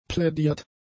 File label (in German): "plädiert", German; adjectives